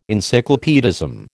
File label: "encyclopaedism", American